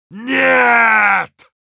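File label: Heavy jeers, Russian; «Нет!»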